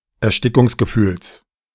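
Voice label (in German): "Erstickungsgefühls", German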